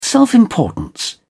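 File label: "self-importance", British English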